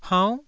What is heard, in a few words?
"haunn9", taiwanese